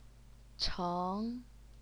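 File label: "cheng", second tone, Chinese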